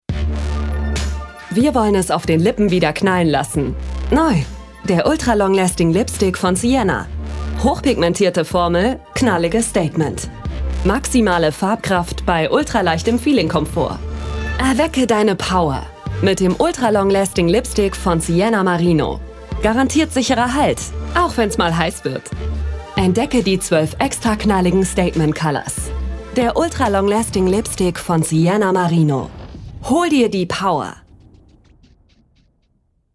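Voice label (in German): Werbung; Sienna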